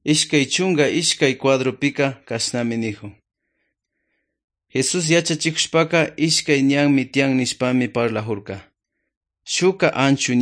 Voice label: good news quichua otovalo

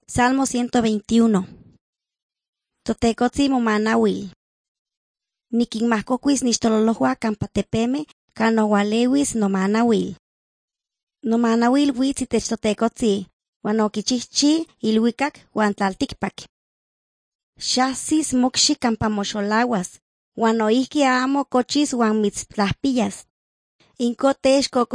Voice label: words of life nahuatl guerrero tlapa, drama; the bible league nt

